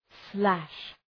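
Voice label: "slash", English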